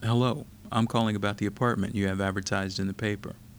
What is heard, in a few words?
standard american english; introduction language and linguistics 2nd edition